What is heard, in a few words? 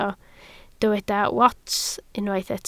"wats", Welsh